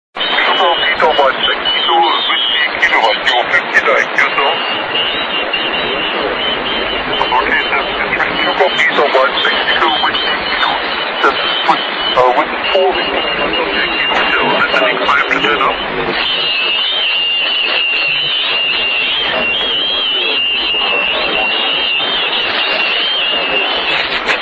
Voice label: qso haiti 40m

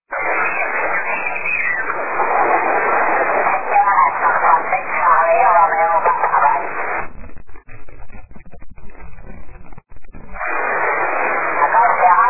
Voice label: QSO

